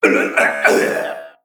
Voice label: Male Mech Death

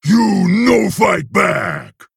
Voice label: Male Ogre Attack

